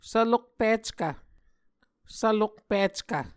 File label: "svlokpickv", Muscogee